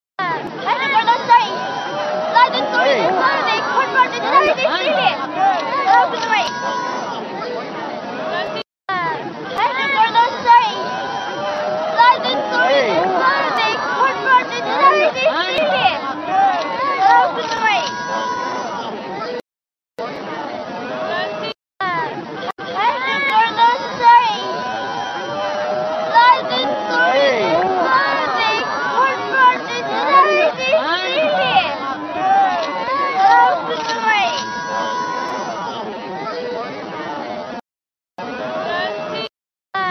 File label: woman slower